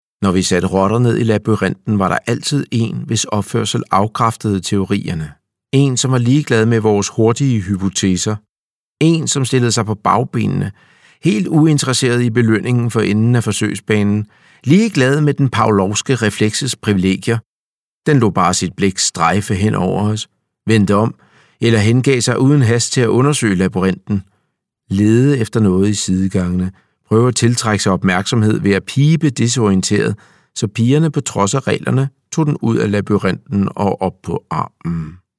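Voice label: Danish Audiobook Male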